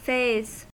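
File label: "phase", American English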